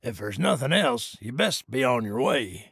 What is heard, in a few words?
trader male neutral sale accepted